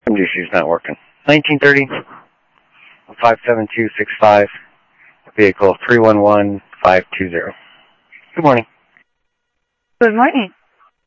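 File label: Sheriff Lake Patrol, scanner audio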